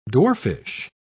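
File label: "dwarfish", British English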